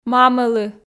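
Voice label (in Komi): мамӧлы, Komi, female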